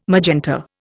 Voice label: "magenta", Indian

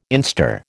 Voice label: "instar", American

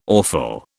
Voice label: "awful", British

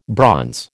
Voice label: "bronze", American

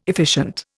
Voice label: "efficient", Indian

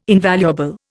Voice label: "invaluable", Indian

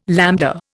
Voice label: "lambda", Indian

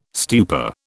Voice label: "stupa", British

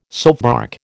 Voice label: "soapbark", American

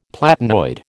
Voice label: "platinoid", American

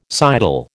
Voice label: "sidle", American

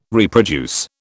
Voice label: "reproduce", British

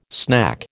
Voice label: "snack", American

